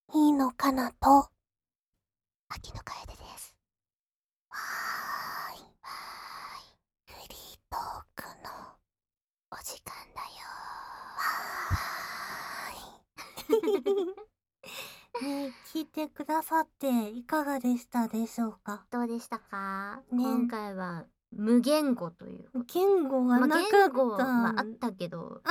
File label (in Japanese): フリートーク, ASMR; 収録背景など